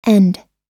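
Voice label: "end", English; oxford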